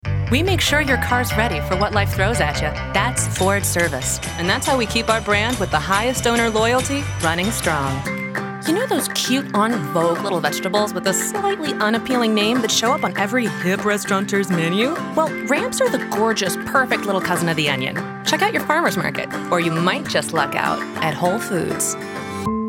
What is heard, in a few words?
Music VO; Schadenfrau Studio